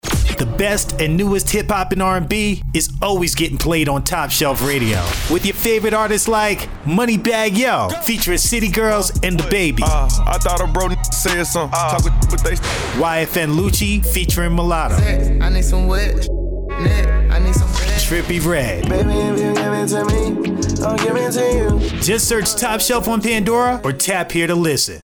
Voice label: music promo; Top Shelf Music

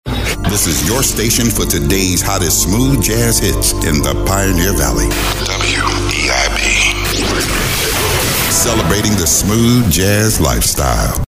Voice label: LifeStyle Imaging

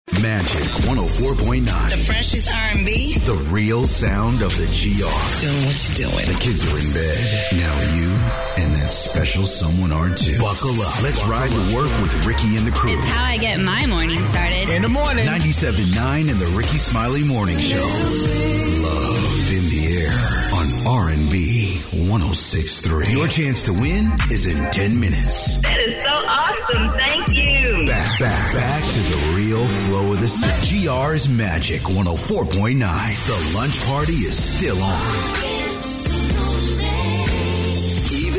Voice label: Radio Imaging Urban AC

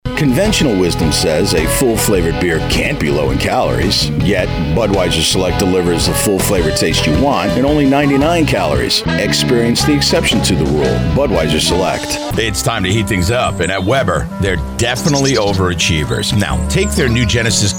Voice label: Commercial

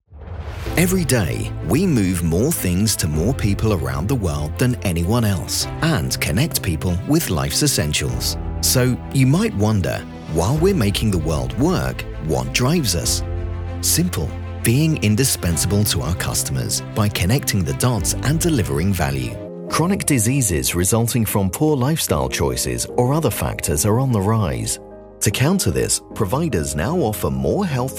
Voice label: Corporate VO

